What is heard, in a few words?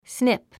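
"snip", English; sníp スニップ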